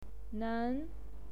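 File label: "nen", Mandarin Chinese, second tone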